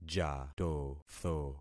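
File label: "jatotho", concatenated syllables; Affixing experiment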